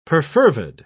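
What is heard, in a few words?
"perfervid", British English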